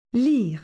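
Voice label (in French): "lire", French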